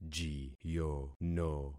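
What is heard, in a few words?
"jiyono", concatenated syllables; Affixing experiment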